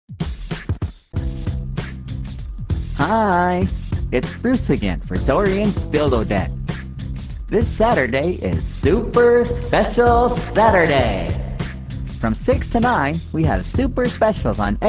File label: Commercial; Dorians Dildo Den